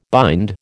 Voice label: "bind", American